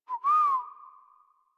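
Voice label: horse stop walk adult, English; Warframe; Duviri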